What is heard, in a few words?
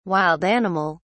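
"wild animal", British English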